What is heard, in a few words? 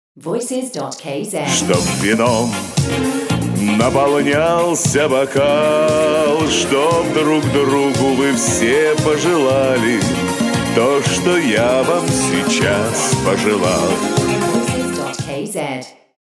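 Russian vocal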